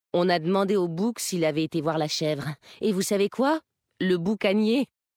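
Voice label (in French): French Femme Blague; Kultirassien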